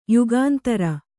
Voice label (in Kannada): "ಯುಗಂಧರ", Kannada